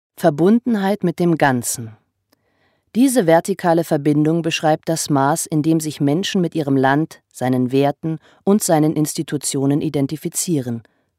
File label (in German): Neutral